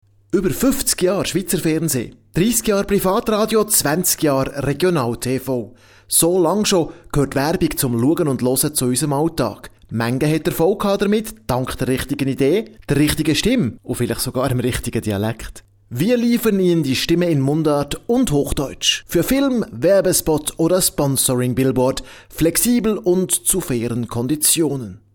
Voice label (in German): Sprechprobe m